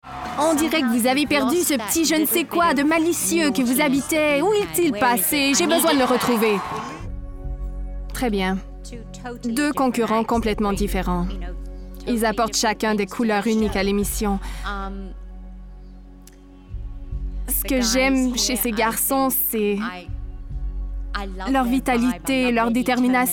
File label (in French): Surimpression vocale